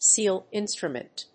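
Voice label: "sealed instrument", English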